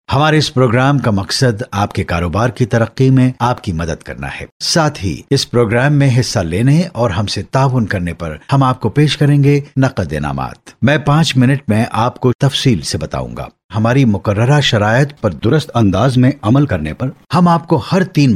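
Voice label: Urdu General; GoLocalise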